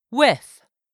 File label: "with", English; 3000 words